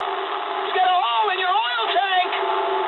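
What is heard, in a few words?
damaged oil mood low, speech English; War Thunder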